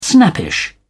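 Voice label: "snappish", British English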